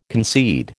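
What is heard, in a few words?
"concede", American English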